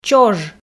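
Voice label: "чож", Komi, female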